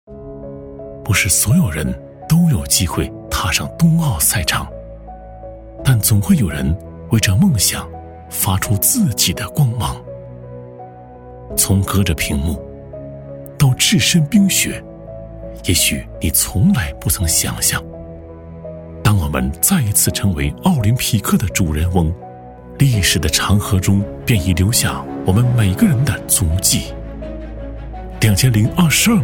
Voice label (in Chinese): 男 宣传片; 冬梦大使